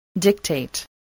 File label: "dictate", english spelling